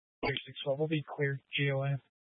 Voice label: Police Dispatch, scanner; Police 1 Dispatch